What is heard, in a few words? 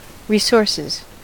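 "resources", US English; pronunciations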